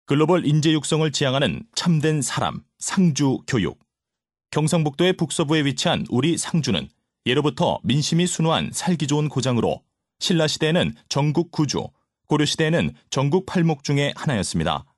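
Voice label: Korean, male, various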